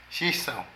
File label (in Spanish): Racimo, Zapoteco de Petapa; Vocabulary